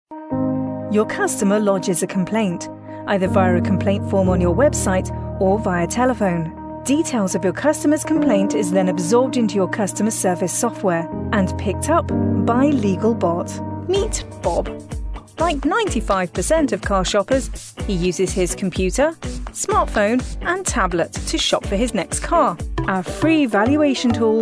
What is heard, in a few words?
Explainer